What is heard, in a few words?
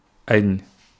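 "añ", Wolof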